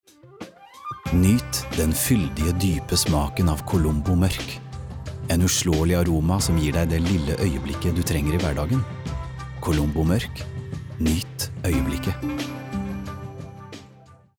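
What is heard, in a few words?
Norwegian soft sell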